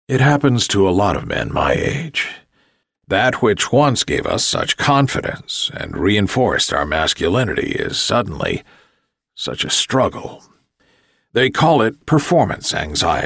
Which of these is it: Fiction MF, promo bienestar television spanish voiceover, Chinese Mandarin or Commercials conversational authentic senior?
Commercials conversational authentic senior